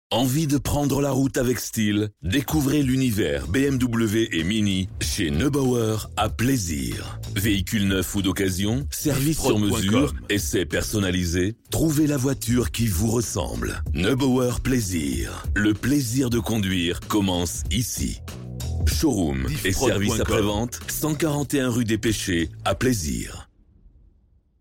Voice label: Spot BMW Mini, publicite; Neubauer Plaisir

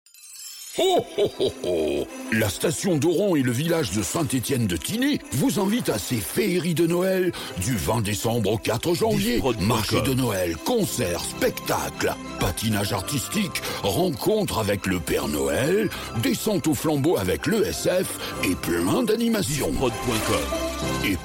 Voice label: Spot Noel; Station Auron Village St Etienne de Tinee

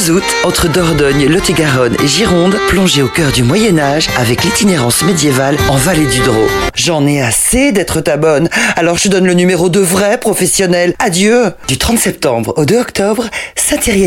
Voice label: VO Voix F

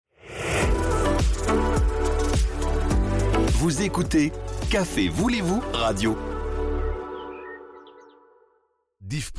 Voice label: Radio Jingle Vous ecoutez; Cafe voulez vous

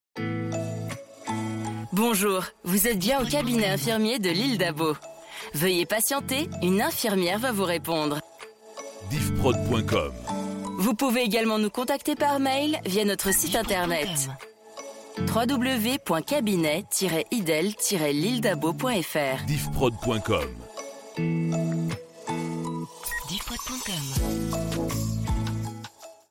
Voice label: Attente Voix, telephonie svi; Cabinet dInfirmieres

